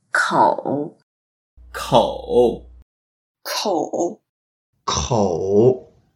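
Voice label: "kou3", Mandarin Chinese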